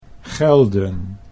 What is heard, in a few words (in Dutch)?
"gelden", Dutch